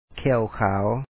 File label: "khE3Ew kha2aw", Lao; ແຂ້ວຂາວ